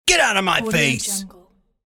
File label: angry male get out of my face